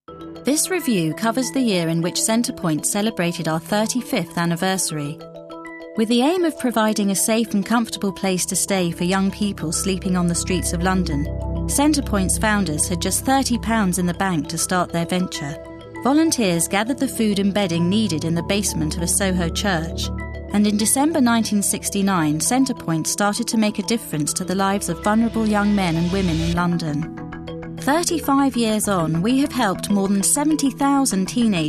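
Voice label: narration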